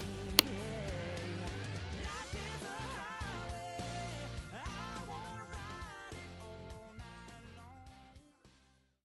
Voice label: psa seatbelt